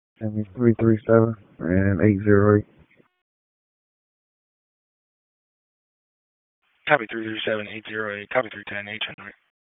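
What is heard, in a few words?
Raleigh Police Northeast District Dispatch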